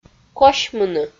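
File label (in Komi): "косьмыны", Komi-Zyrian, female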